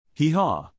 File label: "hee-haw", English, neural; animal sounds in english; Stephen